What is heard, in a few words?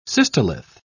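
"cystolith", British English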